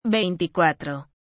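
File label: "veinticuatro", Spanish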